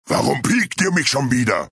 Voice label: grunt; warcraft